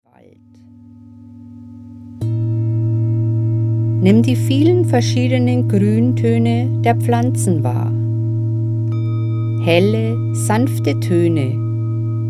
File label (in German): Meditation; Die Umarmung